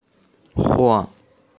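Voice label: "huang4" Chinese, female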